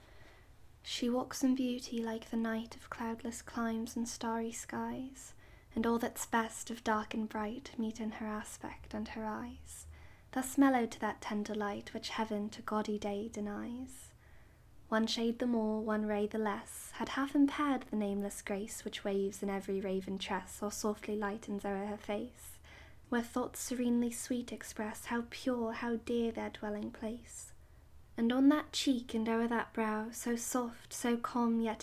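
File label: poetry reading English accent, female; She Walks in Beauty; Lord Byron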